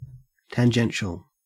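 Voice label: "tangential", Australian English; pronunciation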